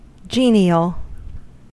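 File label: "genial", American English; pronunciations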